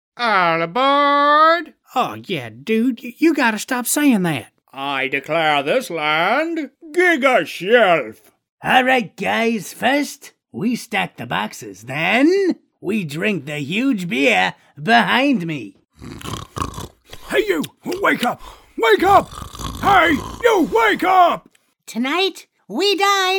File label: animation